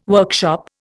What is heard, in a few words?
"workshop", Indian